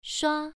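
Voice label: "shua" Mandarin Chinese, first tone, pronunciation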